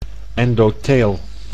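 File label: "endotheel", Dutch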